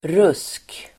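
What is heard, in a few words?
"rusk", Swedish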